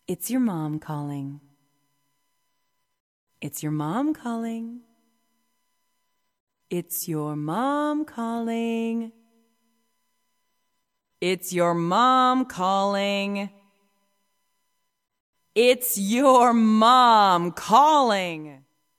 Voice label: female talking ringtones